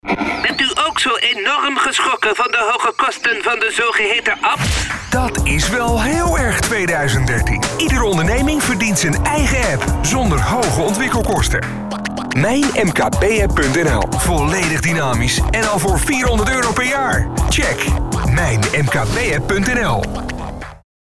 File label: radio commercial